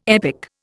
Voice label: "epic", Indian

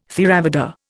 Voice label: "Theravada", Indian

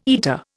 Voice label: "eater", Indian

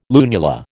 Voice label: "lunula", American

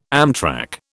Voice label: "amtrac", British

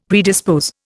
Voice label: "predispose", Indian; Dictionary

